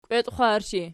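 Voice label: "kwetxwarshi" nominative, Archi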